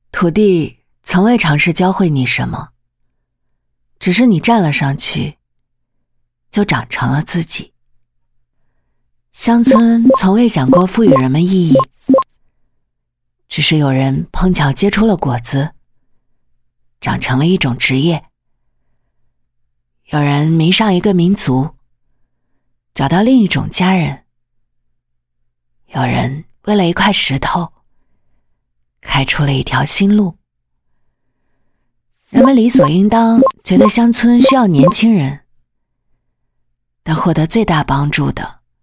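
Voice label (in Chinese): 女 素人音 自然述说, 国语配音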